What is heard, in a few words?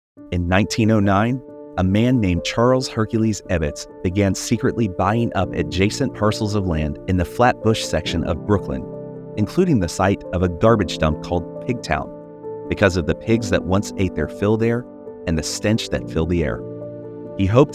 Documentary